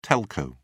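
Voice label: "telco", British English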